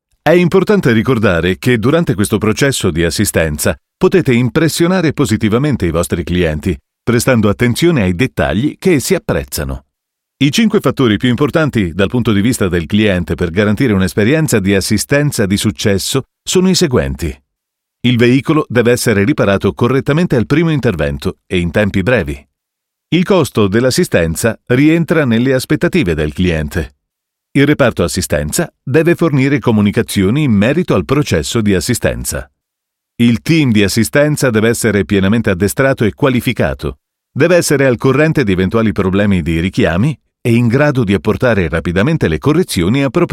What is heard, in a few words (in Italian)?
ITA eLearning classico